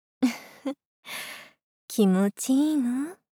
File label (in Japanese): んふっ、気持ちいいの・・・? お姉さま系×低音域